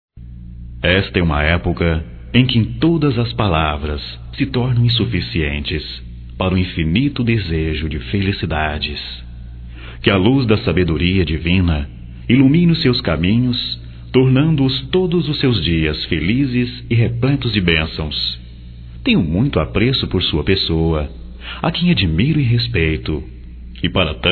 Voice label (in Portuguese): Natal geral, masc